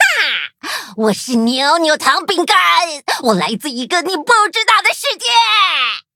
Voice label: loading zh-hant; Cookie Run